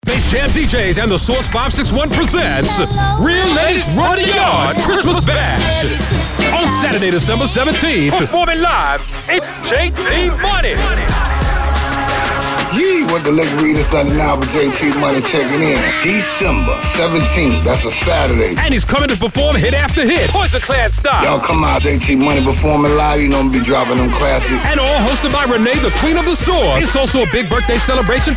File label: Commercial; Weisman Center